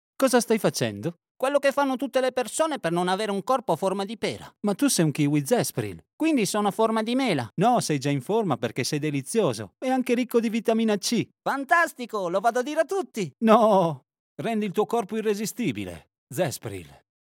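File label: Italian COMMERCIAL CHARACTERS; ZESPRIL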